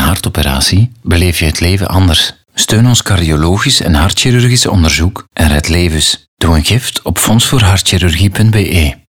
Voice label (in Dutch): Luister Vrouw; FCC NL